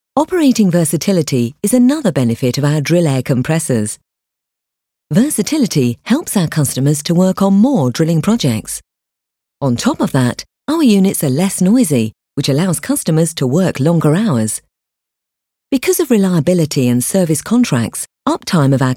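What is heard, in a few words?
English, female, elearning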